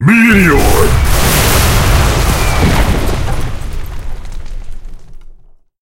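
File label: skill meteor enemy en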